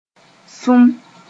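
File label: "sũm", Mooré; sap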